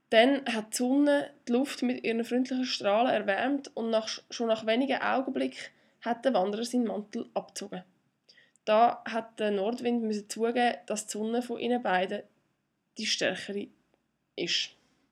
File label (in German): Nordwind Dialekt, intonation